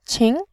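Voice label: "qin", Mandarin Chinese, second tone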